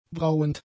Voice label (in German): "brauend", German; adjectives